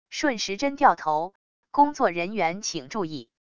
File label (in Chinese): 语音, 语音报警器; EJM 560